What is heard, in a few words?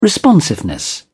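"responsiveness", British English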